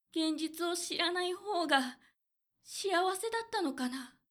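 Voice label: female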